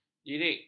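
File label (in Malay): "jirik", Malay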